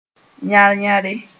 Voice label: "yãr-yãre", moore

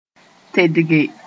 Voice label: "tedge", moore; bring into line

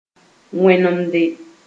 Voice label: "wẽnemde", Moore

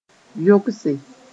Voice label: "yogse", moore

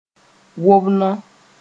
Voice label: "wob-nao", moore

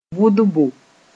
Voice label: "vʋdbo", moore; injection

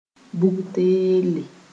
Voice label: "bugtelle", moore

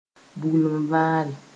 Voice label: "buglunvãre", moore

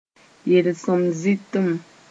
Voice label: "yel-sõm-zɩtem", moore

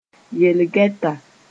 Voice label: "yel-gɛta", moore

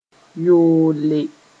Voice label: "yoole", moore

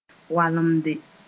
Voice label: "walemde", Mooré

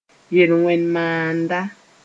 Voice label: "yel-wẽn maanda", moore

